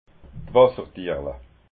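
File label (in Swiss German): "wassertierle", Alsatian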